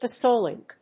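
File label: "pasolink", English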